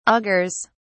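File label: "augurs", British English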